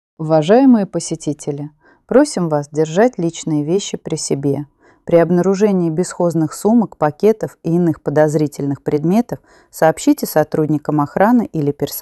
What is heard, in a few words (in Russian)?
opoveshchenie antiterror bditelnost ru en